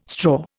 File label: "straw", Indian